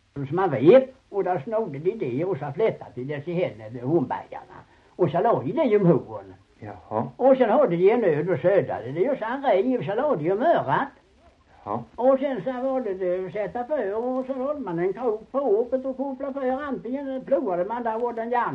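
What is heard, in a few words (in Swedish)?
Ramdala Blekinge, svenska sagor dialekter; Folkminnen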